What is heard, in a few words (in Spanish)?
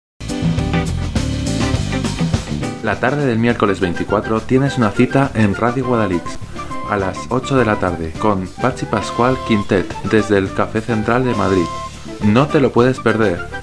anuncio; concierto miercoles